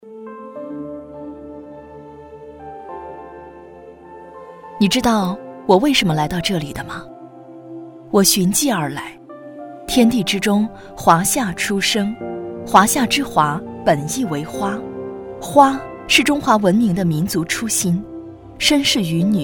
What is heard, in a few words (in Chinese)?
女 专题 花博会